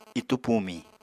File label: "itú pumiñ", Apatani